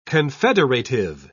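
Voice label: "confederative", British English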